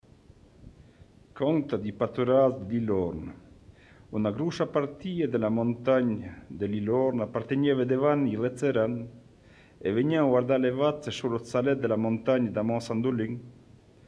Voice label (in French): patois de Chandolin Anniviers histoire; patois valaisans; Conte des paturages de lIllhorn